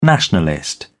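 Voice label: "nationalist", British English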